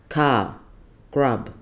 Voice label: "kar" grub root grub